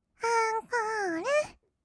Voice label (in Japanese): アンコール, kawaii voice; ASMR; 色々なワンショット